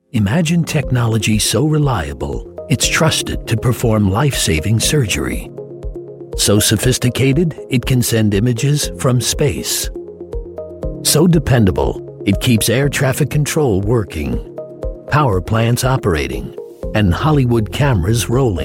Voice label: american male web promo telecoms